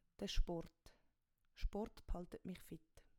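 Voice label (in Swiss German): "de sport", Swiss German; Wortschatz Kapitel 1; Kapitel 1 Hauptwortschatz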